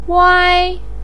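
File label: "wai1" Chinese syllable, pinyin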